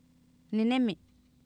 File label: "ninemi", Oapan, female; Oapan minimal pairs 1998